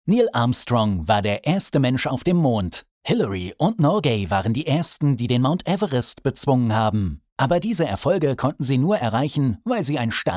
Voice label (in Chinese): 德语男-专题宣传片